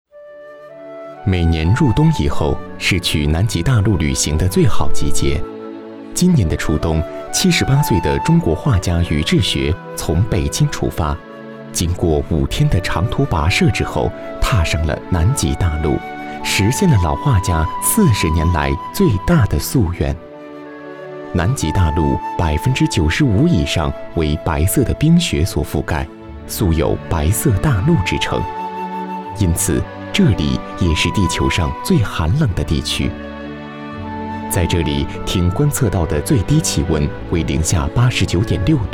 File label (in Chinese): 男 纪录片; 画中国